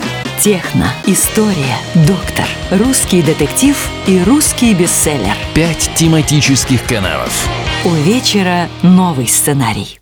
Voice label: промо ТВ

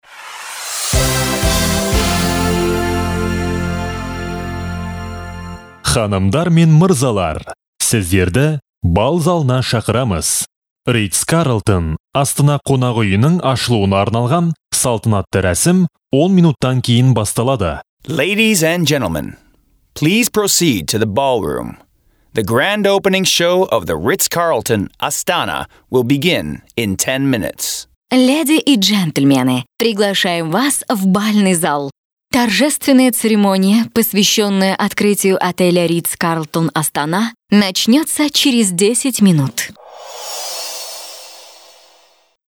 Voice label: объявление мероприятия на казахском английском и русском

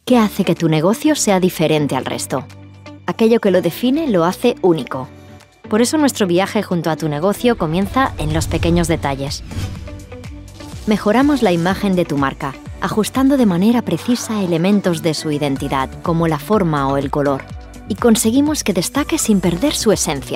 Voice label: Женский испанский

